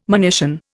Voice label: "monition", Indian